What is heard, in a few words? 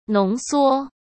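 "浓缩", Mandarin Chinese; chinese flashcards; hsk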